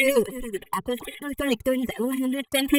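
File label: English, female; short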